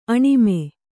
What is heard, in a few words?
"ಆಣ್ಮ", kannada